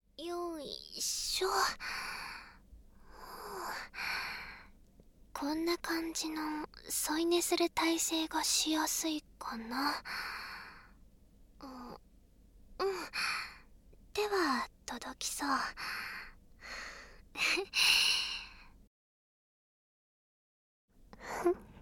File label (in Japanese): 左 声のみ ノイズ除去なし